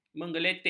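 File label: "menggeletek", Malay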